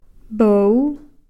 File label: "poow", now